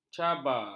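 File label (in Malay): "cabar", Malay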